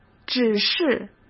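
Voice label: "指示", Mandarin Chinese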